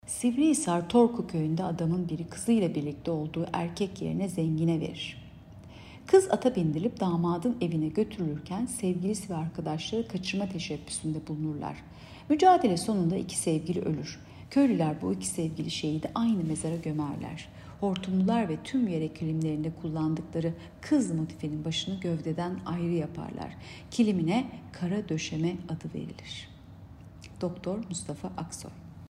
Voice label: turkce